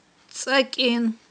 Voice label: "цIакIин", aghul